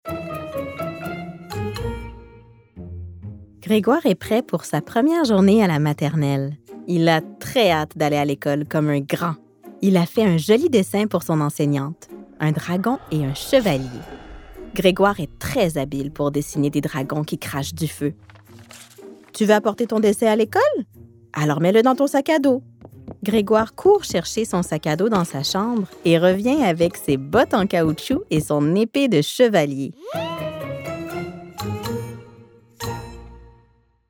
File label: Narration; Les Victoires De Grégoire